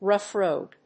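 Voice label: "rough road", English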